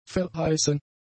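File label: "verheißen", German; adjectives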